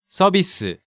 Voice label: "Service", Korean